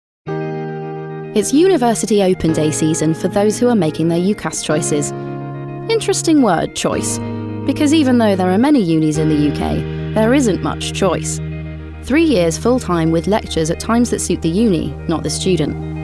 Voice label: Commercial